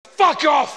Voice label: swear sample